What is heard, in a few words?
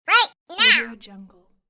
cartoon cute child angry right now